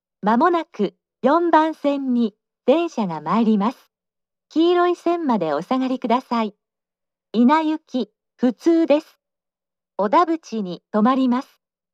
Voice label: approach local, announce; meitetsu